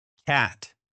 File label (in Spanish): gato inglés; palabras ingles infantil